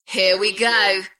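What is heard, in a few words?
British female Here we go; beanstalk audio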